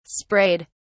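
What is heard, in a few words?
"sprayed", English, British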